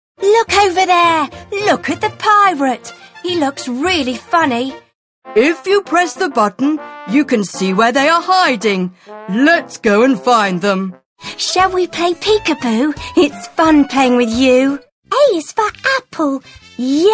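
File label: British English, toys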